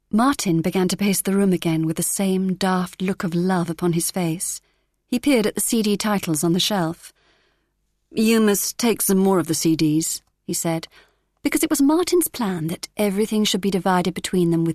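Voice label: drama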